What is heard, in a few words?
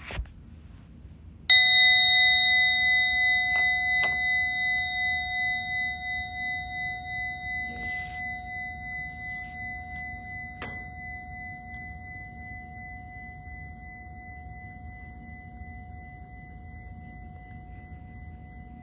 RAIN Meditation